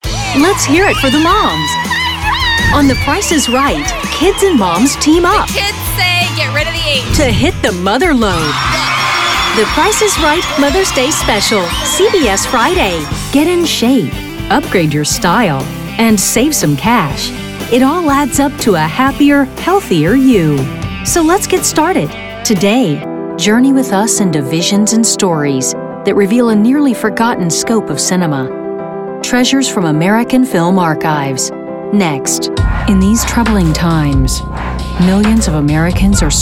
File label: voiceover narration women; new york